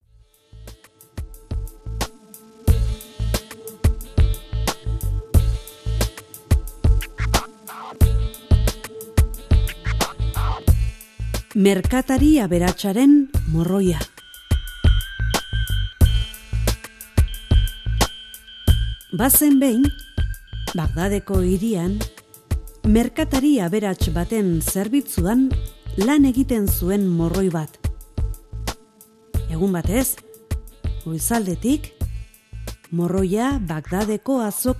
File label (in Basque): Euskara